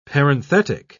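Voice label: "parenthetic", British English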